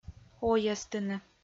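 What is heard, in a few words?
"ойӧстыны", Komi, female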